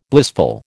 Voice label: "blissful", American